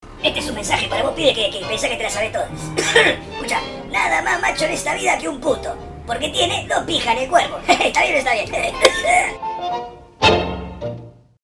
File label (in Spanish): mensaje gracioso